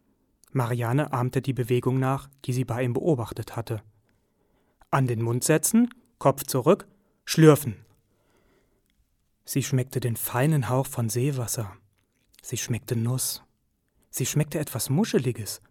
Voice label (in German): Hoerbuch Sprechstil sinnliche Literatur